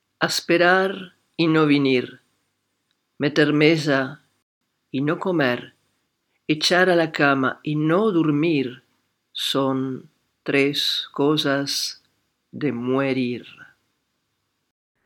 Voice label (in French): Proverbes judeo espagnol